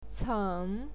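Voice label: "ceng", Mandarin Chinese, third tone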